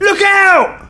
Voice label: Look Out, male; svencoop